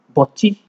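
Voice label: "butty", Nigerian Pidgin, male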